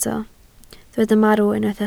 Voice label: "marw", Welsh

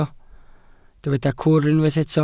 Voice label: "cwr", Welsh

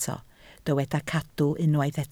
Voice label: "cadw", Welsh

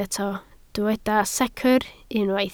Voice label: "secr", Welsh

